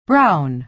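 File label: "brown", American English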